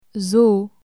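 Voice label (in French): "zoo", French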